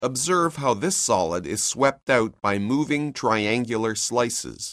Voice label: narration; CALCULUS 7E; cengage